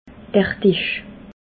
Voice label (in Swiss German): "disch", alsace